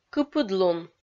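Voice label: "кыпыдлун", Komi-Zyrian, female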